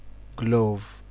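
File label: "glov", Nigerian Pidgin, male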